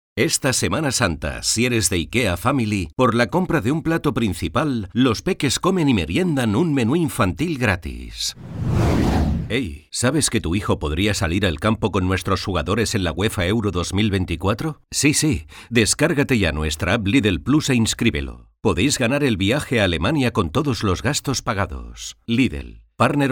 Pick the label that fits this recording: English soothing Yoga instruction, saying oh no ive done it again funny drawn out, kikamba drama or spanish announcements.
spanish announcements